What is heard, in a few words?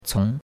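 "cong2", Chinese; Cours-de-Chinois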